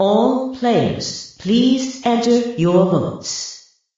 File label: announcer enter your votes